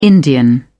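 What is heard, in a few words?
"indian", British English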